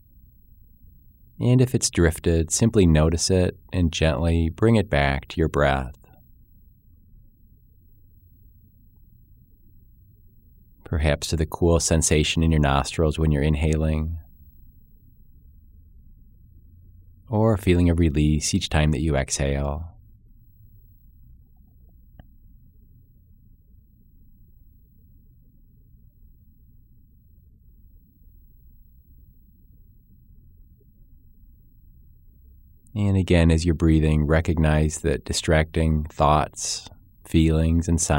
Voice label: peaceful focus voice